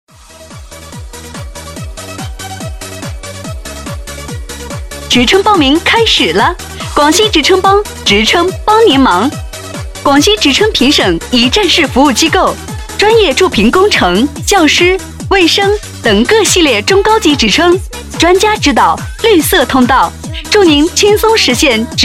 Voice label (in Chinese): 激情 促销